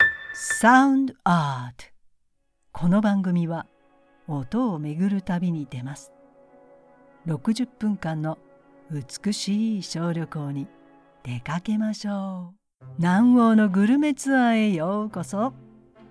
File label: Japanese commercial